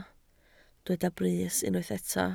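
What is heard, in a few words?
"brys" Welsh, spoken word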